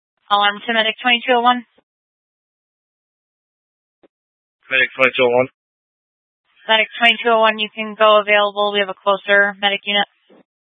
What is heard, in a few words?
Fire Departments Mesa Simulcast; TOPAZ